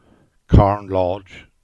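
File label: "Carn Lodge", English; place-names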